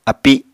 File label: "api", Apatani